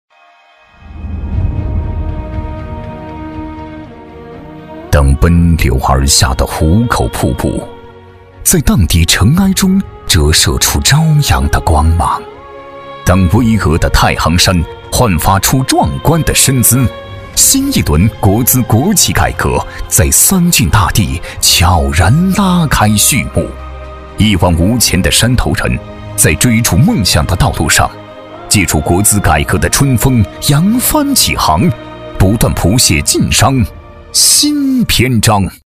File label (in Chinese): 专题, 政府, 舒缓; 晋商